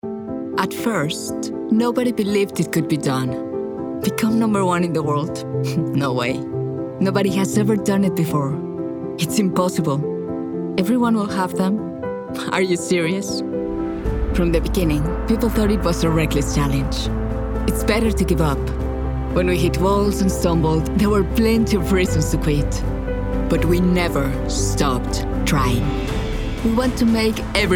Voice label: female spanish mexican english with latam accent corporate; voices; devine voices